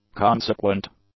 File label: "consequent", English; adjectives